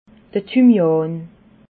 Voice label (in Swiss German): "thymian", alsace